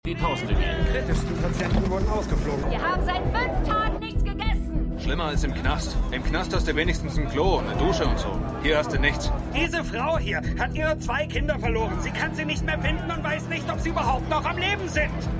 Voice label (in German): Frau TV Sprecher Frau Mann; MEMORIAL HOSPITAL - DIE TAGE NACH HURRIKAN KATRINA (DF 2022); Five Days at Memorial